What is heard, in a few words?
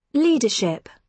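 "leadership", British English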